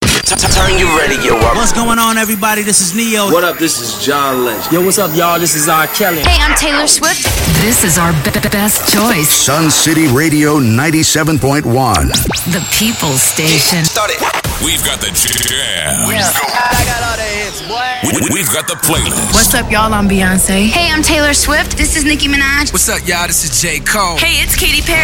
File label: ARTISTES DROPS